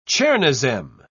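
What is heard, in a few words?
"chernozem", British English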